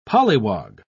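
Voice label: "polliwog", British English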